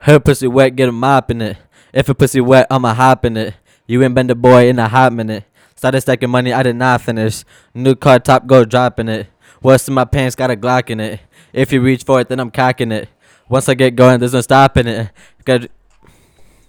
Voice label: recordin Mic Input; flstudio; Vocals